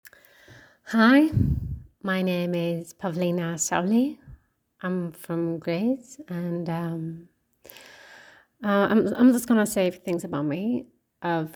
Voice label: American English